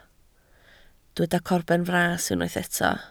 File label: "corbenfras", Welsh